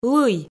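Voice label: "лый", Komi, female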